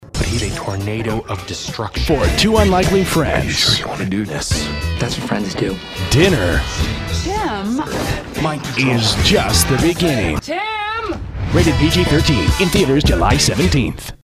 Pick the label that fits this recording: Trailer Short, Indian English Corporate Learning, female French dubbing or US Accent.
Trailer Short